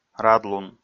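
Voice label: "радлун", Komi, male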